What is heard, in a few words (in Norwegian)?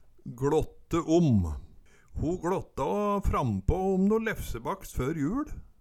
"gLåtte om", Numedalsmål